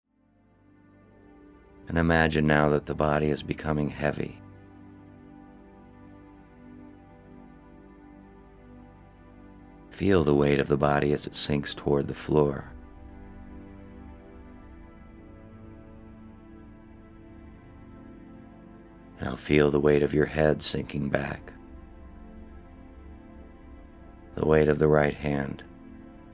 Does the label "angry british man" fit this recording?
No